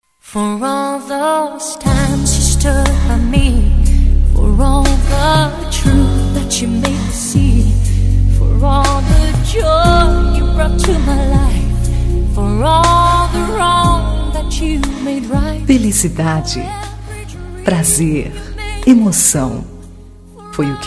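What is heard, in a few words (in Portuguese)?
Rom noite especial, feminino; Telemensagens; Românticas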